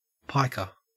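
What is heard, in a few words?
"piker", Australian English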